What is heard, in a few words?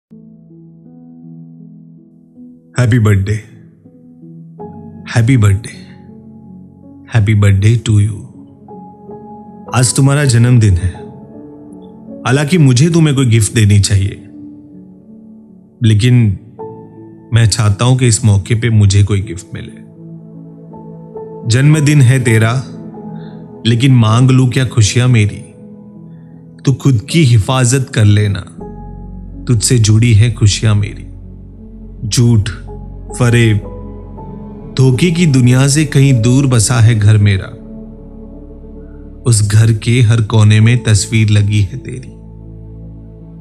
Happy Birthday Poetry, Birthday Wish Poem